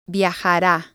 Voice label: "viajara", Spanish

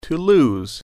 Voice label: "lose", English

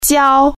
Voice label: "glue", Chinese

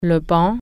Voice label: "bench", French

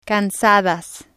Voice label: "cansadas", spanish

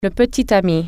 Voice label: "boyfriend", French

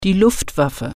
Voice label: "airforce", german

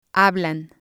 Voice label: "hablan", spanish

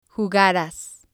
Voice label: "jugaras", spanish

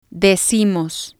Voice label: "decimos", Spanish

